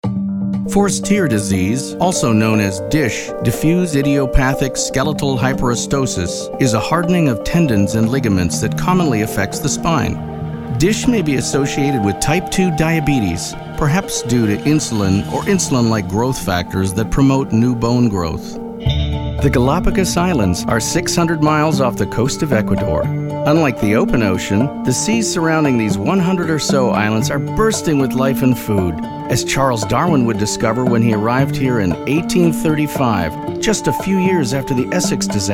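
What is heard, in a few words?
narration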